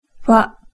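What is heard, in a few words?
"fa", Japanese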